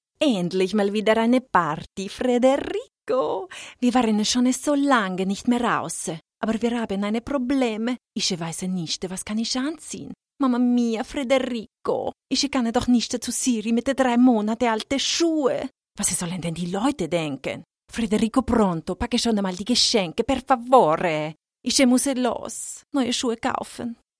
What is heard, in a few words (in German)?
Akzent Italienisch